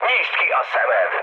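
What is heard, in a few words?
Hungarian player friendly fire mood low, speech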